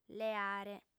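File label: "leare", female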